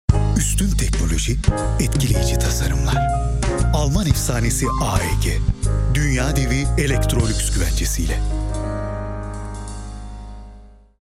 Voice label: kurumsal imaj

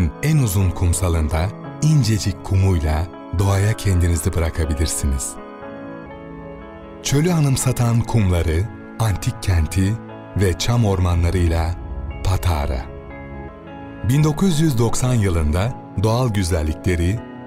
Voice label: belgesel tarihi, seslendirme

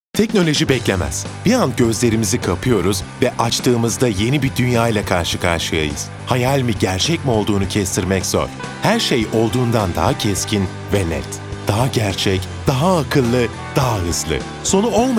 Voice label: neseli tempolu

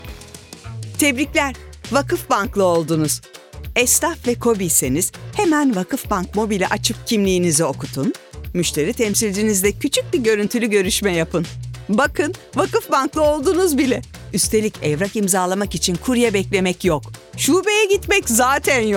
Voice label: enerjik esprili, seslendirme

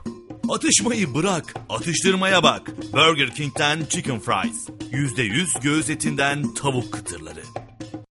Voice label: enerjik esprili

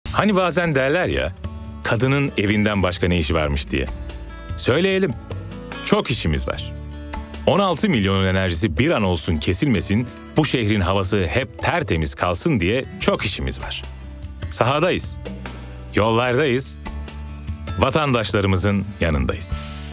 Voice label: enerjik esprili, seslendirme

